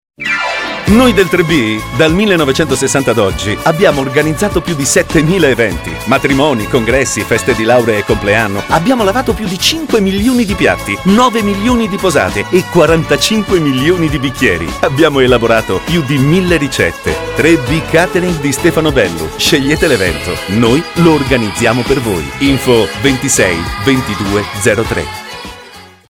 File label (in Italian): spot istituzionale; 3B Catering; Catering e Banqueting di Stefano Bellu; SASSARI